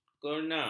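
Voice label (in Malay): "kena", Malay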